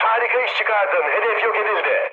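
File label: bomb success, mood high, Turkish; speech; War Thunder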